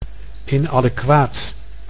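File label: "inadequaat", Dutch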